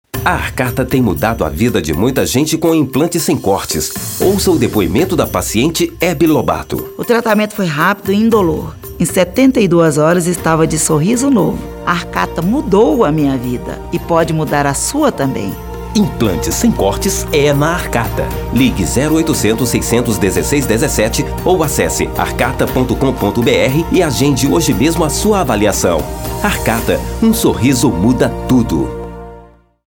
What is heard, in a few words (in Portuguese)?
SPOT TESTEMUNHAL; ARCATA